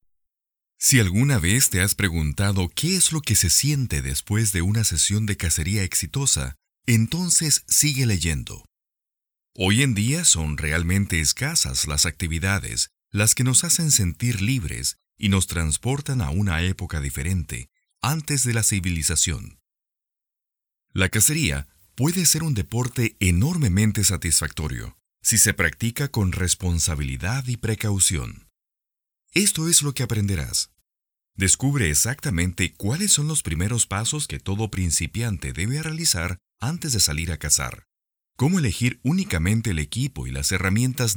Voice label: Audiobook Sample

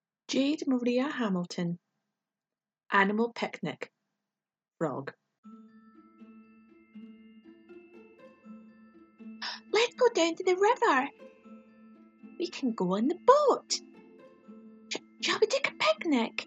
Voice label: childrens tv

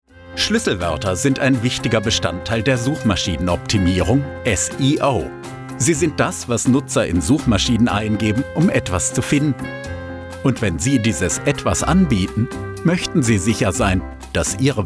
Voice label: Explainer German

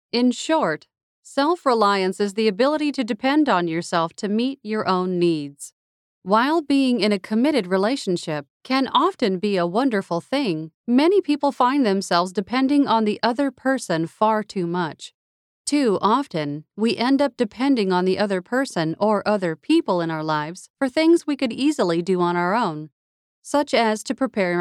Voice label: audiobook; self reliance

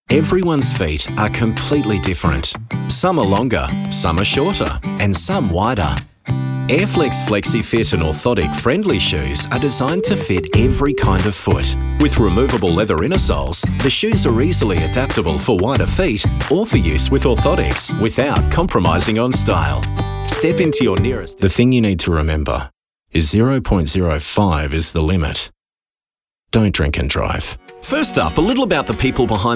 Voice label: NATURAL-RELAXED

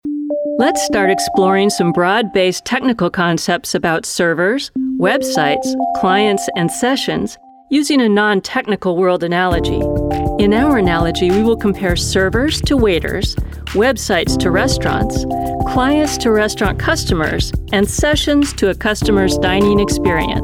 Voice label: Geek Speak Instruct Demo

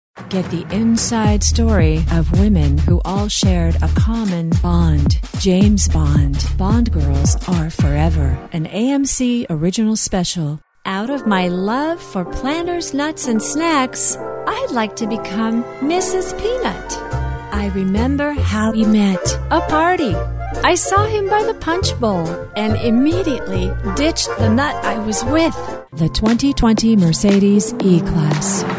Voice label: Commercial

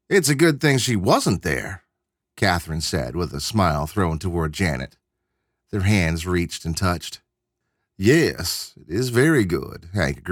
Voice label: Females & Southern Accent

